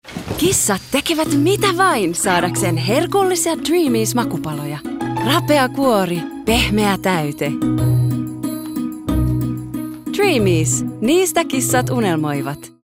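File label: Finnish commercial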